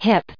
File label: "hip", en us